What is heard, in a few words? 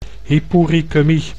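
"hypourikemie", Dutch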